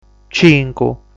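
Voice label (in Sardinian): "Cincu", Sardinian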